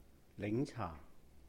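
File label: "lìhng-chàh", Cantonese; lemon tea; 檸茶; ning4caa4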